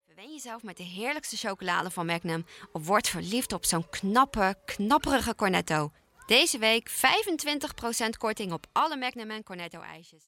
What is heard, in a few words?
Commercial, voice